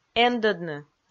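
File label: "эндӧдны", Komi, female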